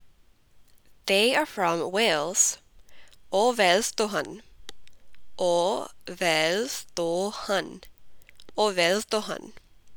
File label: Punjabi They are from Wales